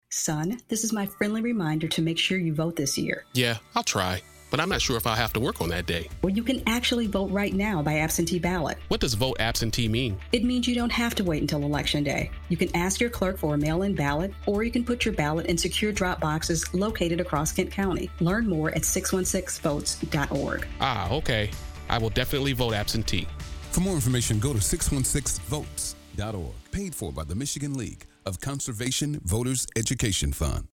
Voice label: radio spot; absentee vote